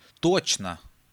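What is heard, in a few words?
"tochno", Russian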